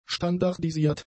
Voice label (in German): "standardisiert", German; adjectives